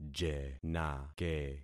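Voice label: "jenake", concatenated syllables; Affixing experiment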